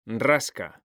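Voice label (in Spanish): "rasca", male; mekanta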